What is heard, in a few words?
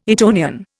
"Etonian", Indian